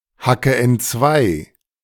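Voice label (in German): "hacke entzwei", German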